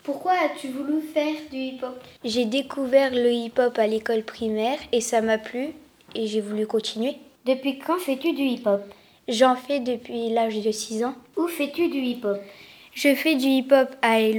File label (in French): hip-hop interview complet